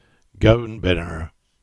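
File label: "Binner Downs" Cornish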